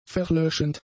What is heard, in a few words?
"verlöschend", German; adjectives